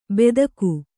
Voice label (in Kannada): "ಬೆದಕು" Kannada; bedaku